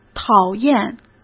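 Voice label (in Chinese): "讨厌", Mandarin Chinese; hsk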